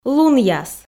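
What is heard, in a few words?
"лунъяс", Komi, female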